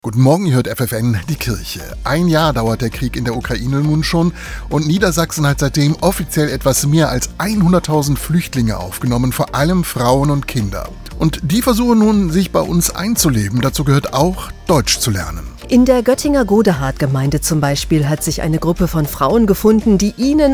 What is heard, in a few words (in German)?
Radiointerview; Deutsch im Alltag